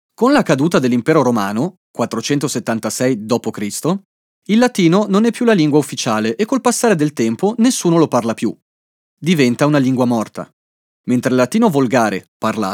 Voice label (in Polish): Lektor wloski; Studio Nonagram